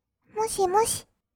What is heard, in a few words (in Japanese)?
"もしもし", kawaii voice; 挨拶